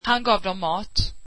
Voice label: swedish he gave them food